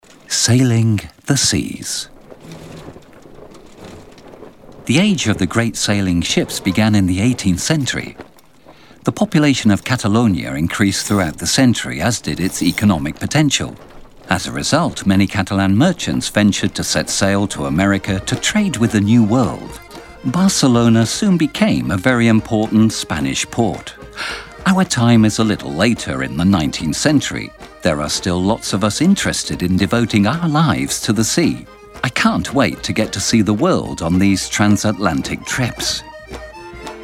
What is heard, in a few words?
audioguia english